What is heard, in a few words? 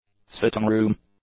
"sitting room", english; nouns